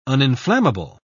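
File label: "uninflammable", British English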